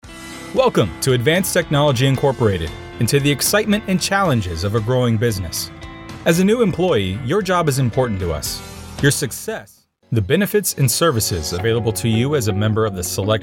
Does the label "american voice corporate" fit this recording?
Yes